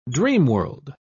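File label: "dreamworld", British English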